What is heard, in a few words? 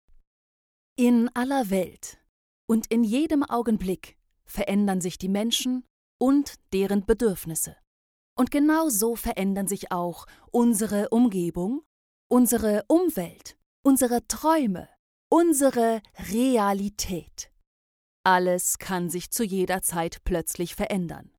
corporate German